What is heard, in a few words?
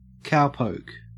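"cowpoke", Australian English; pronunciations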